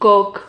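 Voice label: "coc", Spanish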